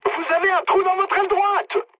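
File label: right wing, mood high, French; War Thunder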